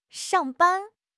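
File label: "shang4 ban1", Chinese; HSK2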